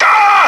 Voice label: hurt heavy; cloaker